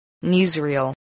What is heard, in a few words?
"newsreel", voice